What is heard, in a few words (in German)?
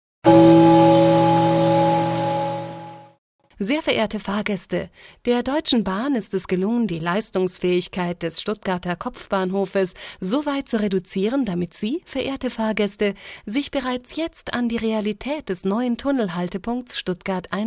Ansage